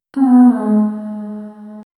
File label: female voice softly says, detached